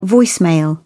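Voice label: "voicemail", British English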